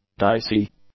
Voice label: "dicey", english; adjectives